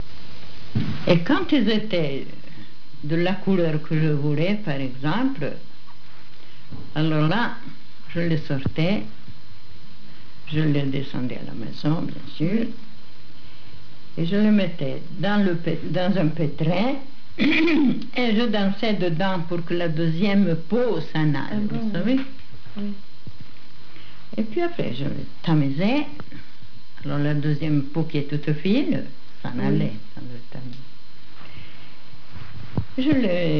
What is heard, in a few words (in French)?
corse, accents de France